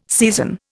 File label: "season", Indian